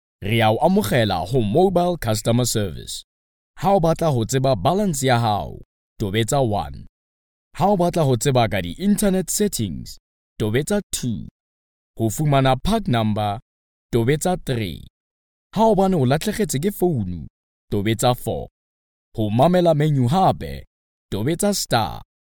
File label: male tswana voice over talent upbeat